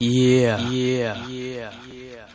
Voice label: "yeah" echo, voice

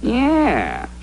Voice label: "yeah", villain; ooh ahh

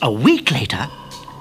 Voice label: week later, male

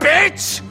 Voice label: "bitch", expletives

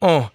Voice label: "uh", male; ooh ahh; uh um

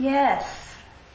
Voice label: "yes", woman stern

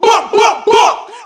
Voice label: "buck buck buck", ooh ahh

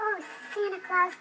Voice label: oh santa claus girl; Voice; xmas

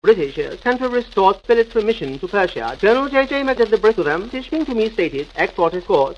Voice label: briddishatemporapord, gibbrish

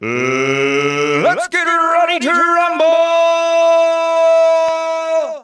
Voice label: let's get ready to rumble male; Voice; introductions

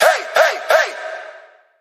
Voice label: "hey hey hey", voice; ooh ahh

